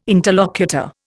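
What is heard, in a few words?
"interlocutor", Indian English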